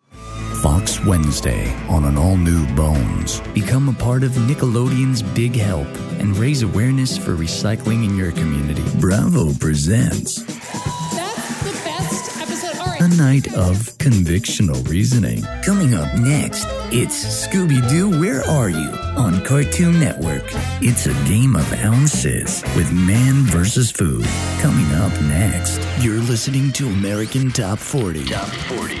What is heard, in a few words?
Men Promo Trailers